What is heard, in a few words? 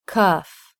"U" "Cuff"